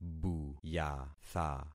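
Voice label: "buyatha", concatenated syllables; Affixing experiment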